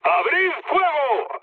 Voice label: attack position, mood med, speech; War Thunder